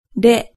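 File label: "re", Japanese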